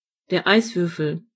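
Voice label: ice, German voices words; gcompris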